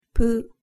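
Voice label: "pu", Japanese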